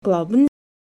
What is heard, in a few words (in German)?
glauben, ausspracheschulung fehlerbeispiele